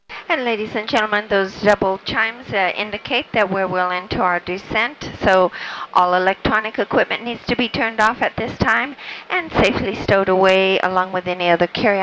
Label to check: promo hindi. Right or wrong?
Wrong